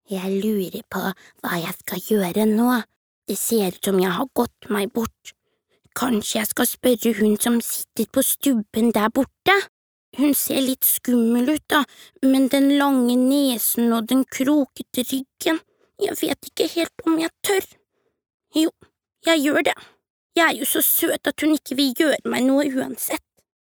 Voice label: no dub character